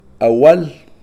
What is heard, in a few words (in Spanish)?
plantar, Kakchikel